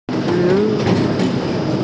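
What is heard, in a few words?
"li3wu4", Mandarin Chinese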